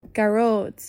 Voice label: Gearoid, irish names